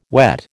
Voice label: "wet", American